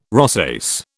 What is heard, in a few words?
"rosace", British